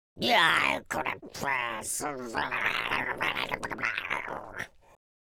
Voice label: angry, Pirate